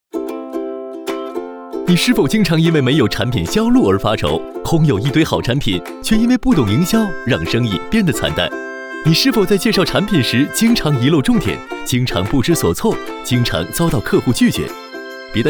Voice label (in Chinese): 男 中文; 秒搜掌柜